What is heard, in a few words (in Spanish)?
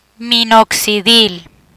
"Minoxidil", Spanish; medical spanish dermatology medicines; learn spanish